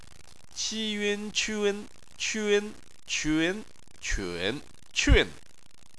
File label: "qun", chinese